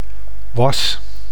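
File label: "was", Dutch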